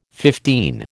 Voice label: "fifteen", American